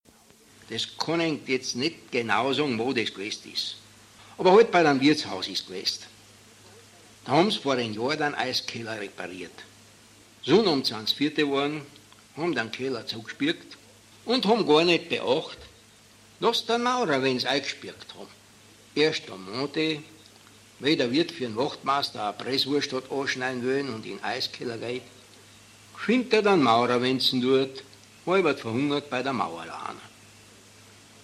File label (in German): mundart